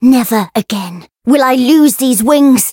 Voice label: chant